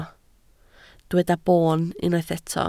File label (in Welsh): "boon", Welsh